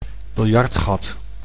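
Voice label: "biljartgat", Dutch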